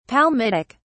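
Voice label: "palmitic", British English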